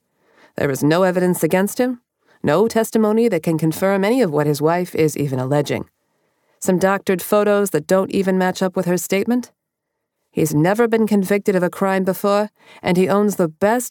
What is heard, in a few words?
Public Defender Boston accent M F dialogue